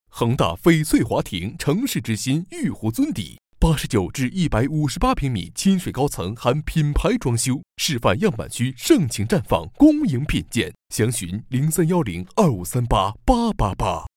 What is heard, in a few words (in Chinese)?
男,广告; 恒大·翡翠华庭